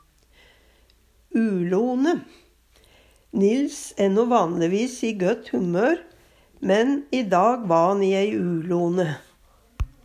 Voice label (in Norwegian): ulone, Numedalsmål